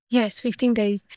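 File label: Voice Acting es, female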